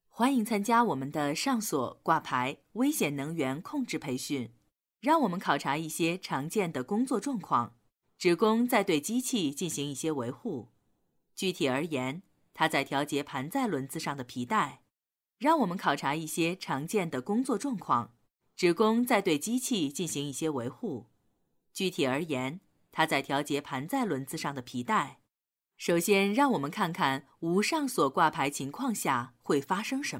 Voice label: locutora china

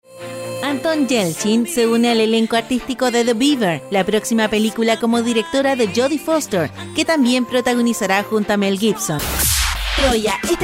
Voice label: Locutora chilena

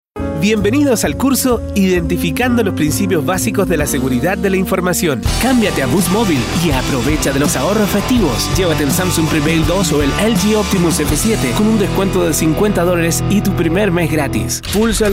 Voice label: Locutor chileno

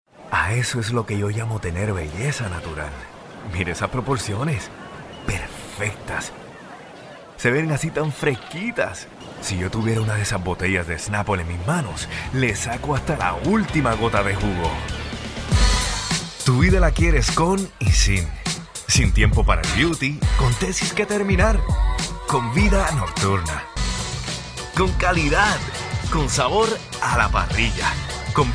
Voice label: Locutora puerto rico

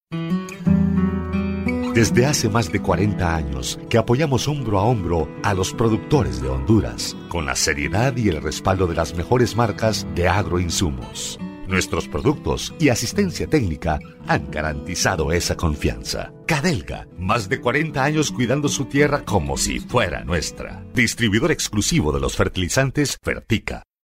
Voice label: locutor hondureno

